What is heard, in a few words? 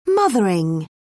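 "mothering", British English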